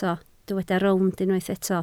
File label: "rownd", Welsh